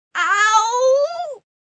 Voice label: Pain Stubbed Ow, Ow, Ouch, 1 child, drawn out, whine; VoiceBox